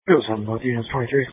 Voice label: Police Dispatch